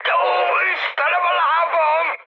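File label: Hungarian damaged pilot mood high, speech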